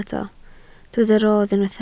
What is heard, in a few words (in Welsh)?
"rodd", Welsh